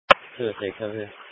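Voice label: Police Patrol Dispatch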